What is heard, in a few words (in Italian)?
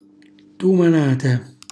"tumanata", Calabrese; un terzo di ettaro; cucina calabrese